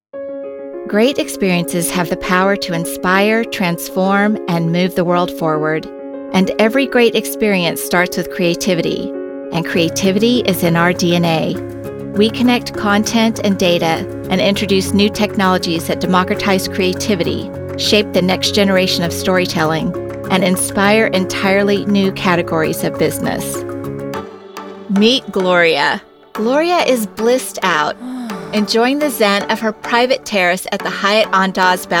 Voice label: EXPLAINER